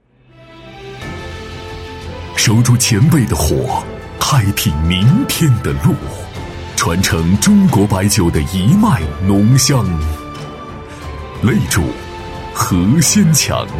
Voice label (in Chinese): 男 晚会介绍 选手视频 激情有力; 男B3-磁性稳重